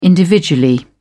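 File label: "individually", British English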